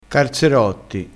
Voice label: "carzerotti", crespinese

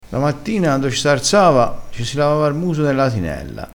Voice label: "catinella", crespinese

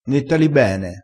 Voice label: "netta", crespinese

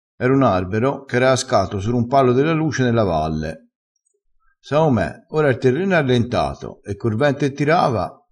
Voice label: "sur", crespinese

